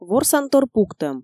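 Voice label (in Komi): "ворсантор пуктӧм", Komi, female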